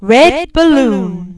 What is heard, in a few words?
red balloon, announcer